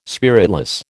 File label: "spiritless", American